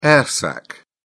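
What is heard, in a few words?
"air sac", British English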